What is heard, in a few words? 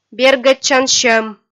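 "бергӧдчан сьӧм" Komi, female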